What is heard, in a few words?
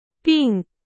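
Chinese; hsk4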